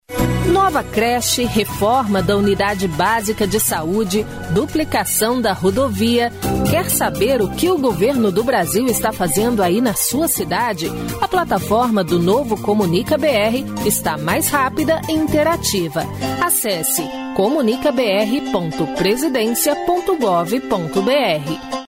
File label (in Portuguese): spot; comunicabr